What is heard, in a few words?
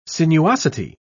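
"sinuosity", British English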